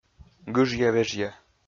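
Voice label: "гыжъя-вежъя", male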